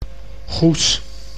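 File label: "Goes", Dutch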